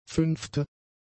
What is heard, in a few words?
"fünfte", German; adjectives